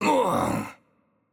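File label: Italian hurt heavy voice; bloom minigame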